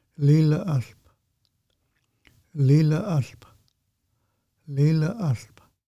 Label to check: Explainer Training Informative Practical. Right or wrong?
Wrong